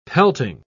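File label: "pelting", English, British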